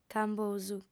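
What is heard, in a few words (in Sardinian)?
"cambosu", female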